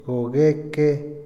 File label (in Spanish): admitir, Otomí, hombre; Habla; INALI